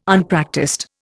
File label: "Unpractised", Indian